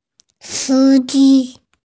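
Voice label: "si1 ji1", Mandarin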